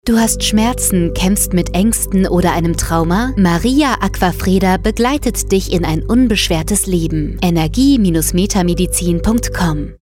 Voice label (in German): Funkspot; Energie und Metamedizin Der Weg zur Gesundheit